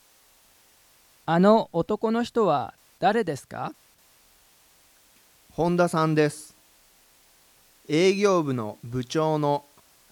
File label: Conversation